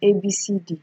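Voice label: "Abcd", Nigerian Pidgin, female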